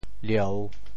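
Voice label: "lieu3", Teochew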